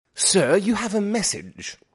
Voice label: english voice notification